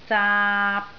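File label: "zaap3", Cantonese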